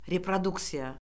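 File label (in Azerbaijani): "reproduksiya", Azerbaijani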